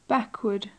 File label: "backward", english